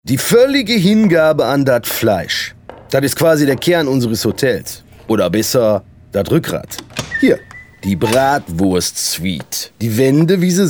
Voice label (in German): akzent NRW; LOFT VOICES